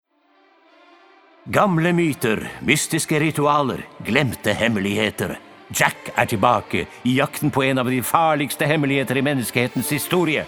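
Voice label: Norwegian movie trailer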